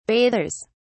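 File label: "bathers", British English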